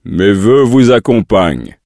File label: draenei, male; mes voeux; PhoenixCraft 1.16.5; PhoenixNPCPack; minecraft